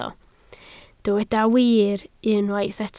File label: "wir", Welsh